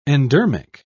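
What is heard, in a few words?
"endermic", British English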